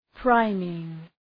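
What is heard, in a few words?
"priming", English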